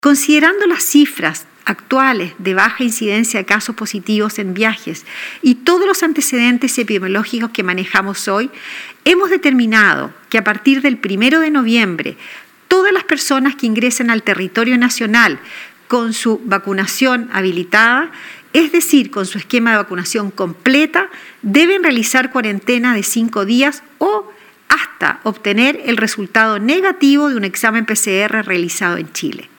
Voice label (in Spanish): cuña